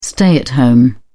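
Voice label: "stay-at-home", British English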